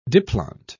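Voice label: "diplont", British English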